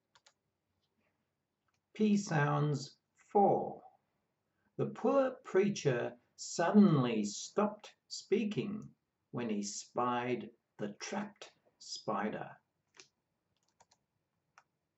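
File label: P sounds, pronunciation of australian english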